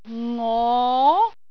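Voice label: "ngo2", Cantonese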